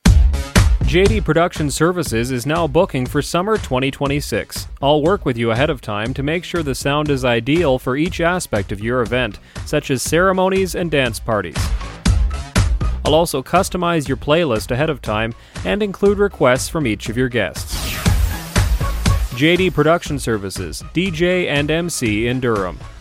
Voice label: Promo; JD Productin Services